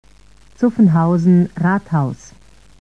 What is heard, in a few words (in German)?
Ansage Haltestelle Zuffenhausen Rathaus; SSB AG